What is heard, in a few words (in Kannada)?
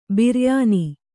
"ಬಿರಿಯಾನಿ", Kannada